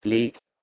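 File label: "bhleig", Proto-Indo-European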